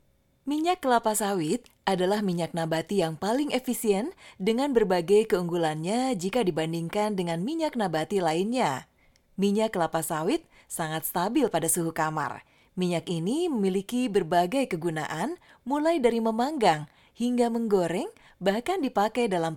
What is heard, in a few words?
Formal Indonesia